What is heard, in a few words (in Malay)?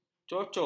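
"cocok", Malay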